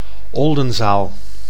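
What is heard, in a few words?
"Oldenzaal", Dutch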